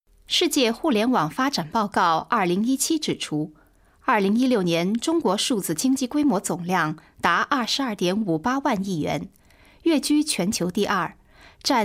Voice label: Chinese Mandarin F Narration; voice over